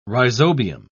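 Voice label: "rhizobium", British English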